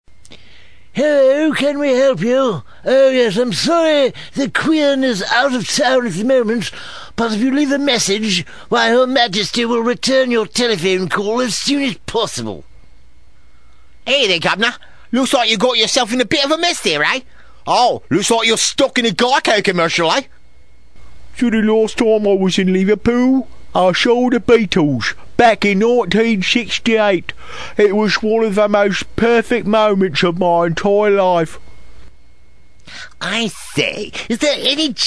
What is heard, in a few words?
English Sample Voices